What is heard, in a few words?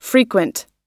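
"frequent", American English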